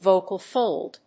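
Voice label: "Vocal fold", English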